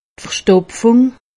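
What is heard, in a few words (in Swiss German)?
"verstopfung", Haut Rhin; medecine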